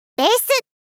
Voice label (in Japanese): 「ベース」 中音域 少女